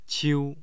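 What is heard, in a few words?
"qiu1", Mandarin Chinese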